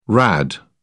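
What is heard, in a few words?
"rad", British English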